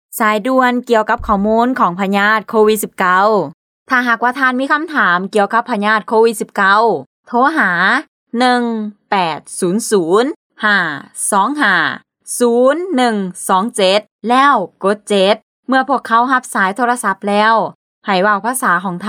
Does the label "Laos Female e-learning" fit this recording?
Yes